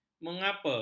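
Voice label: "mengapa", Malay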